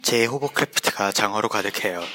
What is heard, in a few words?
hovercraft, Korean; 제 호버크래프트가 장어로 가득해요; (je hobeokeulaepeuteuga jang-eolo gadeughaeyo)